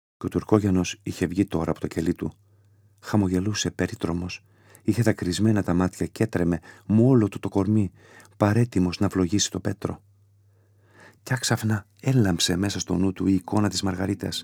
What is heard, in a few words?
SFX, audiobooks; MyAudiobooks; Κατάδικος